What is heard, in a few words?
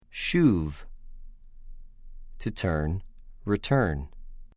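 "שׁוּב", Hebrew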